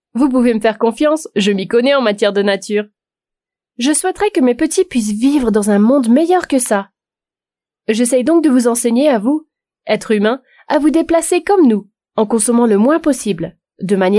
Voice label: french video games